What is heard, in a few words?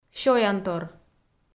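"сёнтор" Komi, female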